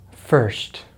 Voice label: "first", American English; easypronunciation

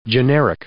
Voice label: "generic", English

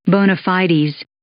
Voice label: "bona fides", English

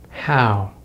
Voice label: "how", American English; easypronunciation

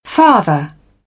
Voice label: "farther", British; ɑːr - ɑː; English

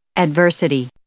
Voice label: "adversity", English

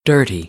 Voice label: "dirty", American English; ɝː - ɜː

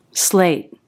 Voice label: "slate", English; sleɪt̚

